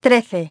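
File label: "thirteen", English; iOS 4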